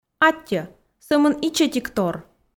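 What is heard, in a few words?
"аттьӧ сӧмын ичӧтик тор", Komi, female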